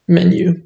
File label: Menu, screen reader audio; kiss-flixel; simplewindow-test